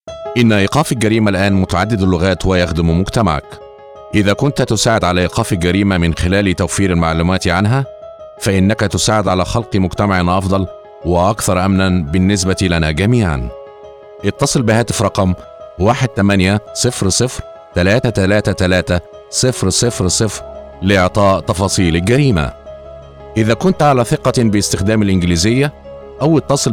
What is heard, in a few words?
Arabic Crime Stoppers